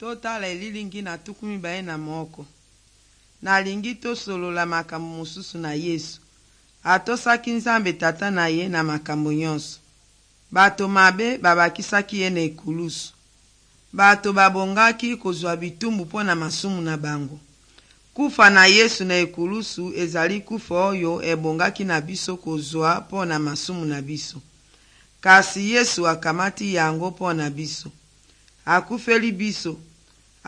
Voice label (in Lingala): good news lingala west, drama